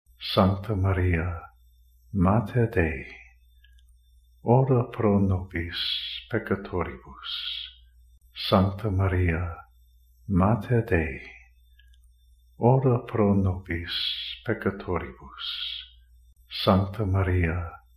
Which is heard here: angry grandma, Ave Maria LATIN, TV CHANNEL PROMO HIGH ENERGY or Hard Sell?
Ave Maria LATIN